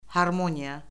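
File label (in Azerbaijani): "harmoniya", Azerbaijani; Orfoqrafiya Lüğəti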